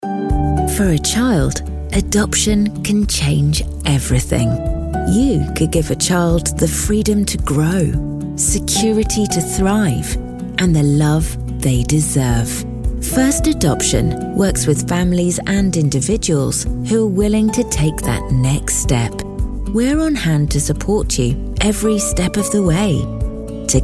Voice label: warm heartfelt read